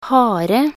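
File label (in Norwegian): "hare", Norwegian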